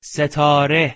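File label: "setare", Persian, male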